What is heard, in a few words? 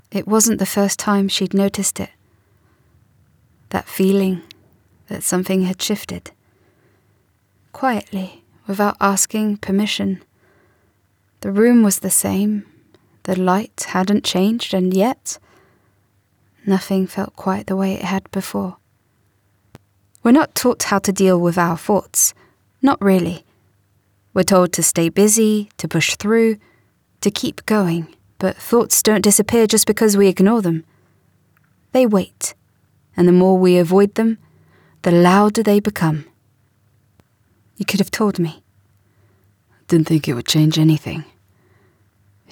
Audiobook English